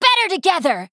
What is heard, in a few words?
Female Night Elf Attack